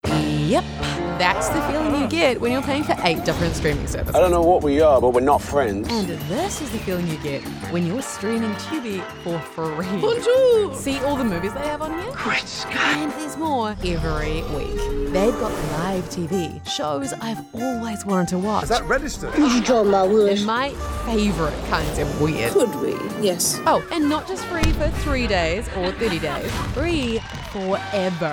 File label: english australian promos